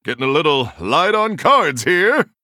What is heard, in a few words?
Male Human Low Cards